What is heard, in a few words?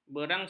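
"berangas", Malay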